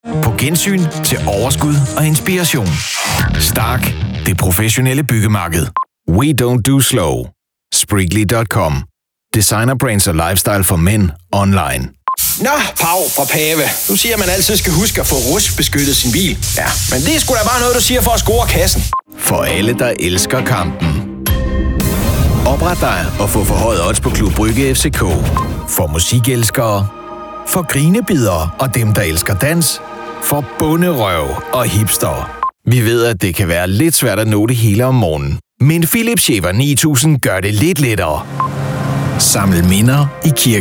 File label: dk commercials